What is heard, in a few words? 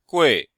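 "gui4", chinese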